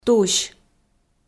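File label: "тусь", Komi, female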